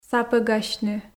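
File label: "сапӧгасьны", Komi, female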